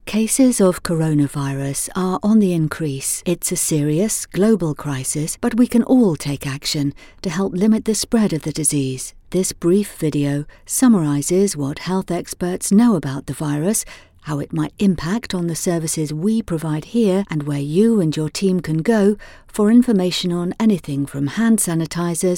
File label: CORONA VO